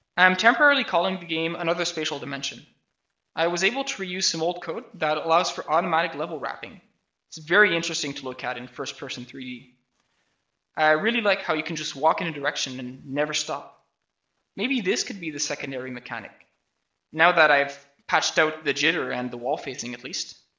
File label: voice, narration